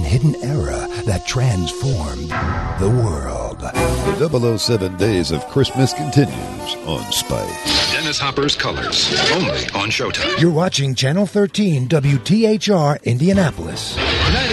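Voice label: promo narration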